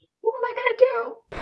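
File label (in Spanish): Voice Acting, Spanish, female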